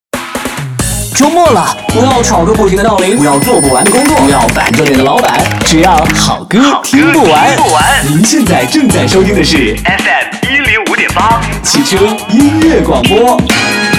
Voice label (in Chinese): 广告 片头片花 汽车音乐广播 活力, 男国